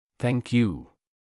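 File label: "thank you", Singapore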